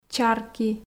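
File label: "чарки", Komi, female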